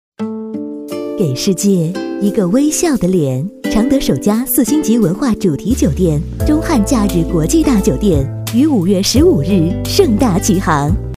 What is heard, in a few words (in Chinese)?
广告 酒店 亲切